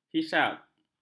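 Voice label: "hisab", Malay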